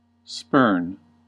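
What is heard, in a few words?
"spurn", US English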